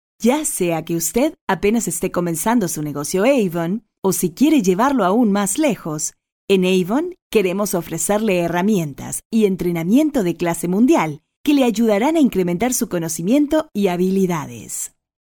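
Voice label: ESLA F elearning, Latin American Spanish, female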